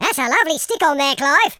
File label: collect, Footy Fan speech